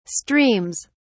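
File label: "streams", English, British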